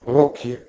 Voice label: "rokja", Proto Germanic

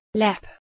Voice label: "lep", Proto-Germanic

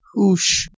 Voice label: "hush", Persian

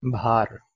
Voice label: "bhar", Sanskrit

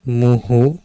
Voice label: "muhu", Sanskrit

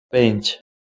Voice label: "pench", Kurdish

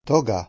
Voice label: "toga", Latin

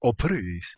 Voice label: "ophrys", Ancient Greek

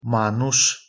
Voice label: "manus", Romani

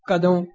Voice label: "kado", Punjabi

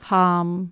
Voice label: "ham", Anglo Saxon

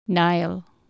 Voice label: "naegel", Anglo Saxon

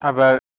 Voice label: "abar", Persian

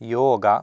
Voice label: "yoga", Sanskrit

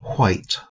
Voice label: "hwait", Proto-Germanic

